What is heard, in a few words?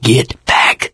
backup quiet, survivor voice mechanic; source engine; left 4 dead 2